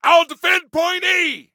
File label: DEFEND, Soldier Male; Soldier Male 03